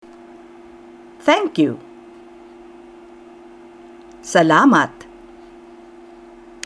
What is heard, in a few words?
Tagalog Thank you; Greetings